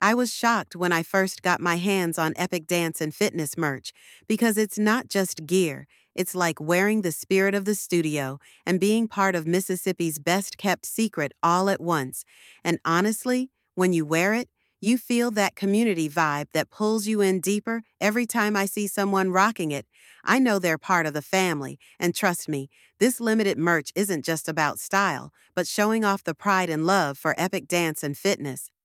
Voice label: voiceover; Epic Dance & Fitness Merch; Showcase limited Epic Dance & Fitness merch with cool visuals and subtle hip-hop beats; Kaylin AI